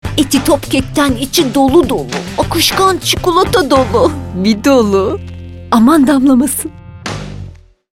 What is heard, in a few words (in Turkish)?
neseli esprili; seslendirme